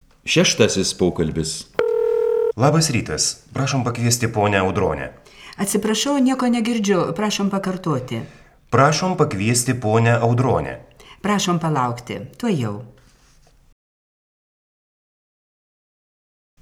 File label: Dialog